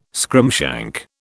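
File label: "scrimshank", British English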